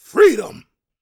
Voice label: preachin, gospel preacher